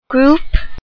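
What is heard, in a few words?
"group", English word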